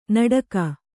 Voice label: "ನಡಗೆ", Kannada